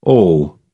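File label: "awl", British English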